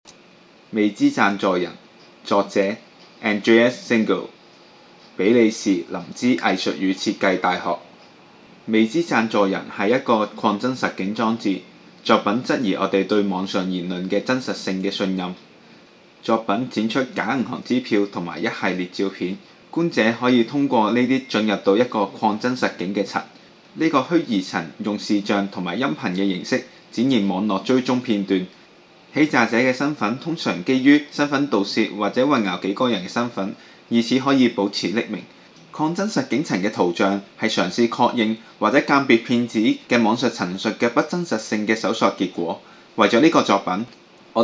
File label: Cantonese; Faceless